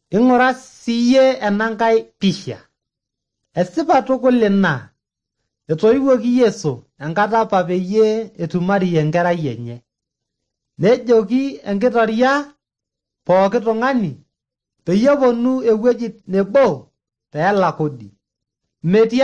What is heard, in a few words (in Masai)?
maasai bsv nt drama; good news; bible